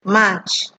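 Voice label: "march", Nigerian Pidgin, female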